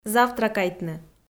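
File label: "завтракайтны", Komi-Zyrian, female; audio dict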